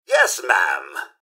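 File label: goodbye, mrhandy, robot; rivetcity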